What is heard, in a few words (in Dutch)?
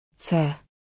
"цай", Khalkha; thee-in-andere-talen